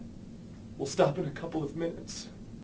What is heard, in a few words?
male sad